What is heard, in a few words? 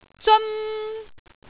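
"zeon1", Cantonese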